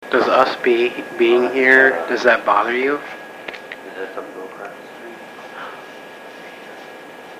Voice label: evp